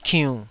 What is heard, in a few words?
"kiunn3", taiwanese syllables